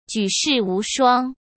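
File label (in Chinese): "举世无双", Mandarin Chinese; chinese-flashcards; hsk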